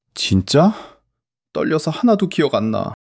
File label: fluster